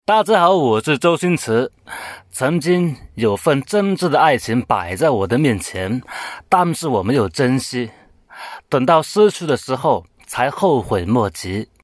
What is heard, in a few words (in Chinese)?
港普; 周星驰